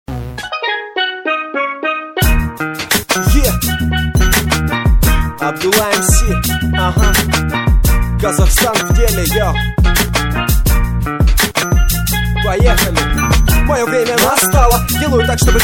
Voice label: rap, rus battle